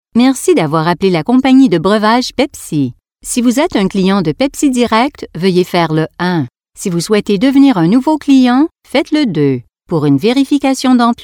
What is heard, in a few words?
CanadianFrench IVR